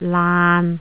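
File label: "laan6", Cantonese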